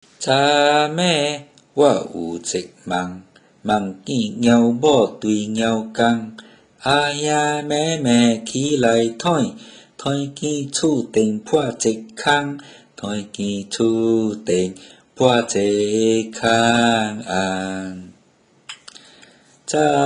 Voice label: Six Dreams Recite, Teochew Nursery Rhymes

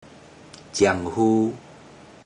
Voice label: "zian20hu3", Teochew; Relatives

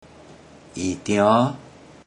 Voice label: "i10tio`2", Teochew; Relatives

